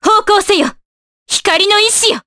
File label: Vox Skill, Jp; Scarlet; kings raid